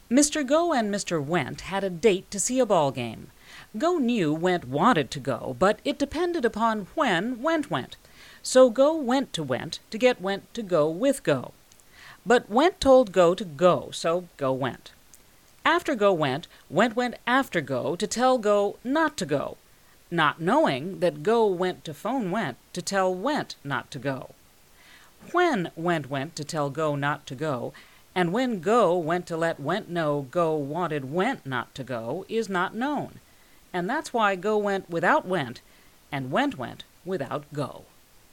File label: read aloud